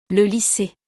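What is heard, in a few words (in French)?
"Le lycee", French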